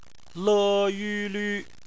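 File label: "lü", Chinese; phonetics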